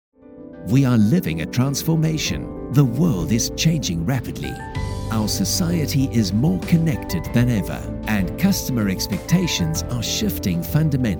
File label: ENGLISH inspiring